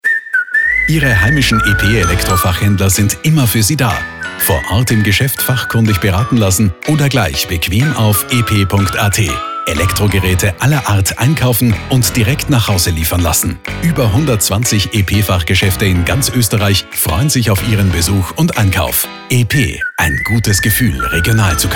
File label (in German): SPOT Regional einkaufen